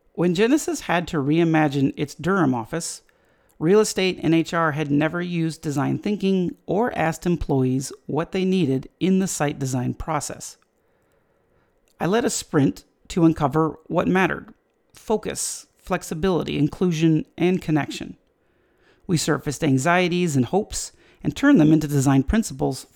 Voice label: voicetrack realestate